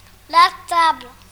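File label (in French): "table", French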